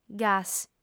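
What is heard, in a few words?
"gas", Sardinian, female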